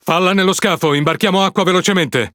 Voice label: It Speech Alarms Critical Flooding; World Of Warships

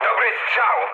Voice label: bomb success mood med, speech pol; War Thunder

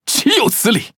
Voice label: Speech Quick Commands Caramba; ZH TW; World Of Warships

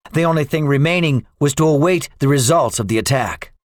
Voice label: debriefing, english; second wave; War Thunder

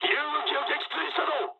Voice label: player killed ally mood high, speech jp; War Thunder

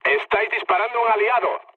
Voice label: player friendly fire, mood low, speech

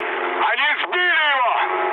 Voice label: aircraft destroyed, mood med, speech ru

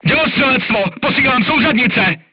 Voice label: voice message art command, crew tanks czech

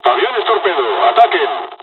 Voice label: japanese first wave, dialogs spanish; War Thunder

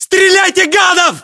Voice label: order attack infantry, battlechatter, ru

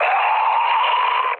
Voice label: damaged pilot mood med, speech pol; War Thunder

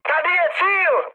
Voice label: near waypoint, speech cze, mood high; War Thunder

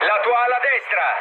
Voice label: right wing mood low, speech it; War Thunder

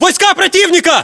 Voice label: threat infantry generic, english; localized english

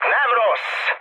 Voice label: trick, mood high, speech hun; War Thunder

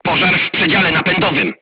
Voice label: voice message fire in tank, polish

